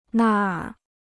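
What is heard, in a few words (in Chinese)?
"那儿" Chinese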